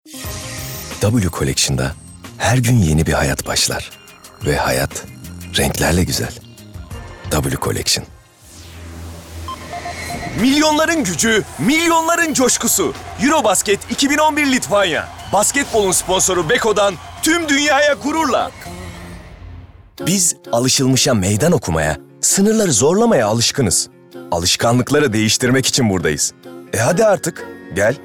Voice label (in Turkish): REKLAM